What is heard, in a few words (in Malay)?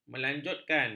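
"melanjutkan", Malay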